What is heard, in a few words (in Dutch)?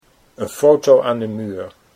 een fotomuur, Dutch